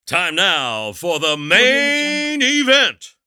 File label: announcer time now for the main event; applehillstudios